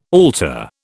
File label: "altar", British